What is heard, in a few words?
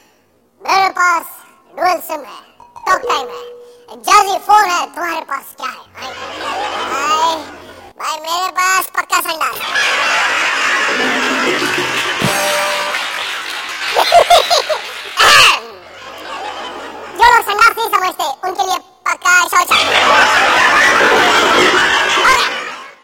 Hindi Comedy Ringtone; Mere Pass Dual Sim Hai Talktime Hai; Ringtones